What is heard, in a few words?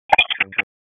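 Dispatch, p25; WSO DISP 3; wake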